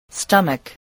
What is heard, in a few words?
"stomach", voice